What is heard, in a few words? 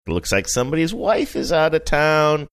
sing songy